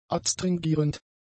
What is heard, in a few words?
"adstringierend", german; adjectives